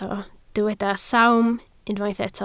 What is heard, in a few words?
"sawm", Welsh